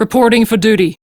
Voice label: Crew Hired, Female; Dialog; en; Warframe; RailjackCrew; Steel Meridian Female